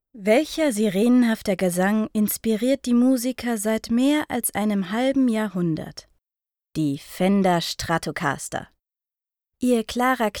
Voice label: doku

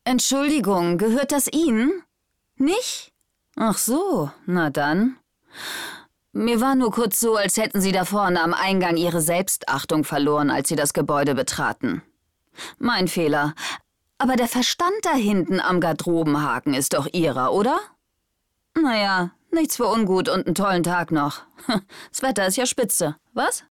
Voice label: synchron, Lip-Sync (Synchron)

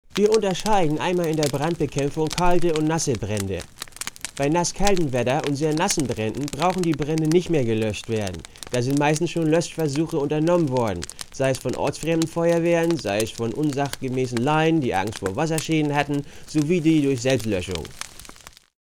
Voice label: hoerspiel trick dialoge feuerwehr missingsch

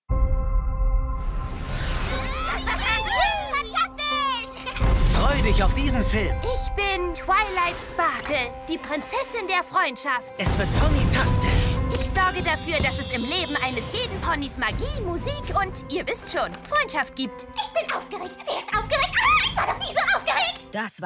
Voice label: Kinotrailer; My little Pony

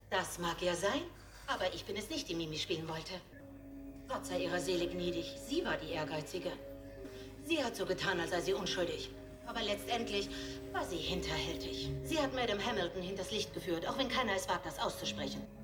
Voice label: Synchron: Crime-Serie, Lip-Sync (Synchron), German; Murdoch Mysteries

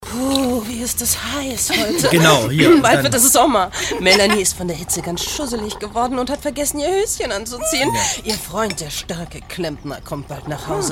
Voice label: Comedy; Sex nach 30 für Radioeins; hörverlag

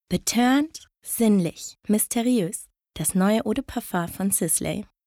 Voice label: Werbung Duft

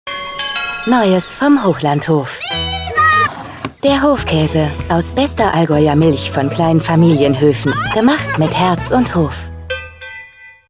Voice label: Werbung; HOCHLAND; LOFT VOICES

